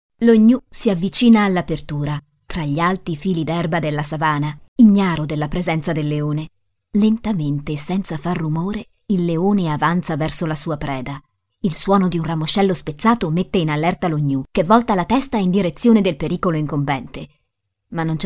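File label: Italian, Documentary; GoLocalise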